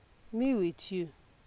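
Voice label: "mewityou", Nigerian Pidgin, female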